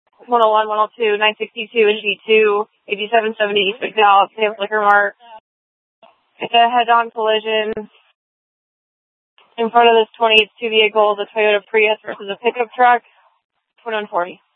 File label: Police South Dispatch